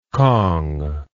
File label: "cong", English